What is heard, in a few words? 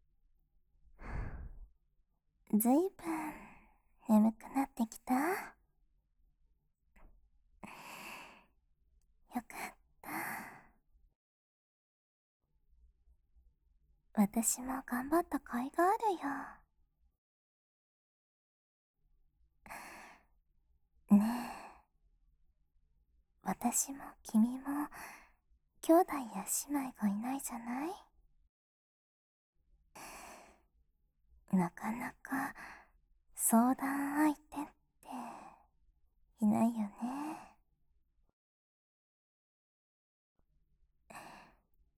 anmin, ASMR